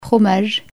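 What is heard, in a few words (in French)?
"fromage" French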